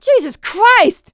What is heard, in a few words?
reaction negative, survivor voice; producer